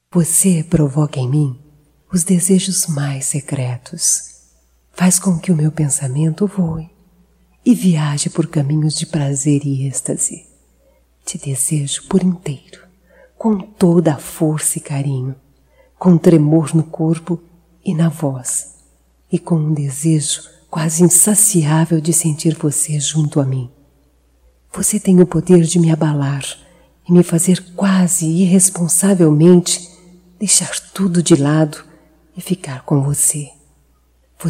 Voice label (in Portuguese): Erótica Feminino